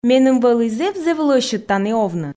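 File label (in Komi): меным вӧлі зэв-зэв лӧсьыд тані овны, Komi, female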